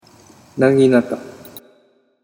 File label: "naginata", Japanese